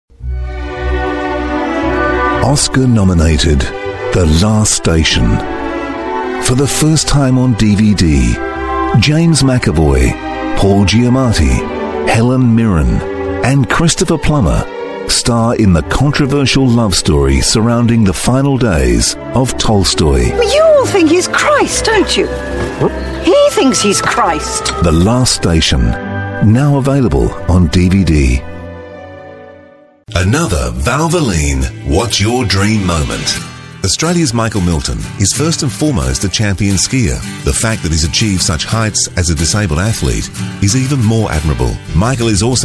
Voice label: Australian English Male